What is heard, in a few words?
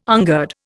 "ungird", Indian